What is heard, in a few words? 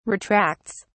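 "retracts", British English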